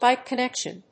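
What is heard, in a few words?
"PIPE CONNECTION", English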